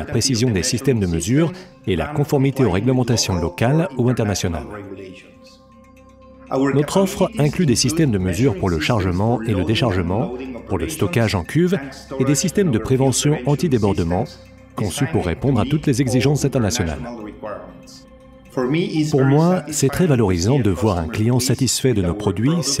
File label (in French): voice over terminaux petroliers; French; endress-hauser